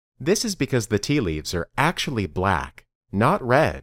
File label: Dictation